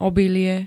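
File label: "obilie", Slovak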